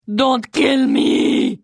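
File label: Flee, Khajiit female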